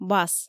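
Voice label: "бас" Komi, female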